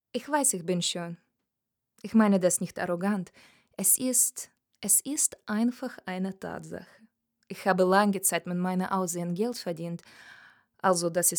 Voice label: female German Russian and Ukrainian German with Eastern European accent; voices; devine voices